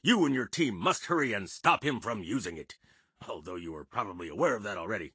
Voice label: English Voice